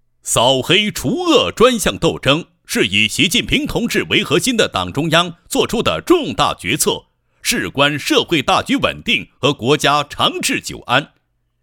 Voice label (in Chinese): 男 扫黑除恶广告